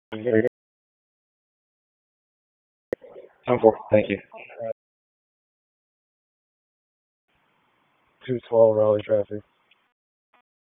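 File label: Police Northeast District Dispatch, Raleigh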